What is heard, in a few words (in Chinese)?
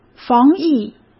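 "防疫", Mandarin Chinese; hsk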